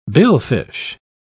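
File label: "billfish", English, uk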